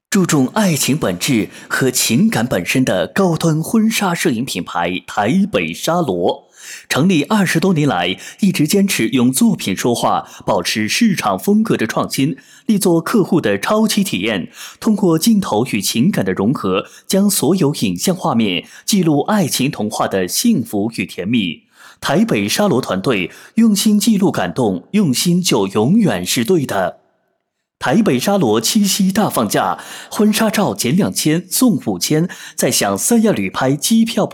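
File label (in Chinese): 台北短视频配音; 台北莎罗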